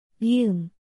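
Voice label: "ㅁ", Korean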